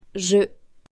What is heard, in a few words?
"JE", French, female; personal pronouns pronoms personnels